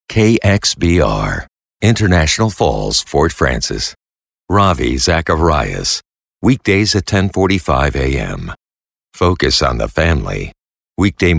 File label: Christian Imaging